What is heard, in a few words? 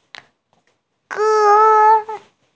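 "ge1", Mandarin Chinese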